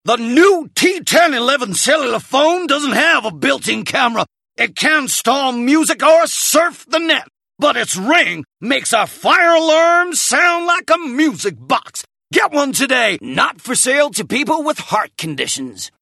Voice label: Accent American Southern